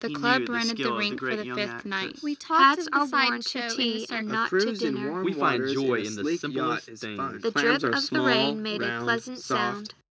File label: anechoic src1 80 src2 60 16kHz; SiSEC08; SiSEC headgeometry